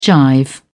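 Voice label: "jive", British English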